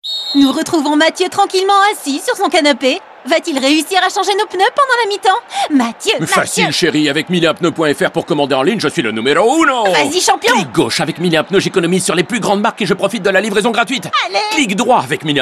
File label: spot, radiopub